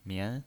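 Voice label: "mian", pinyin voice, Mandarin Chinese, second tone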